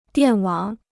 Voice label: "电网", Chinese

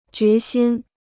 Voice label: "决心", Chinese

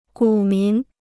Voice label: "股民", Chinese

